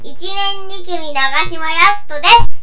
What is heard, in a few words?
robotize